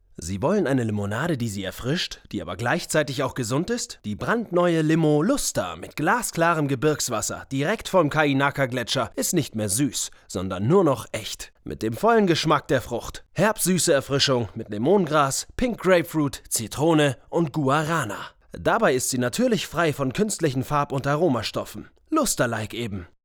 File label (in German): Werbung dynamisch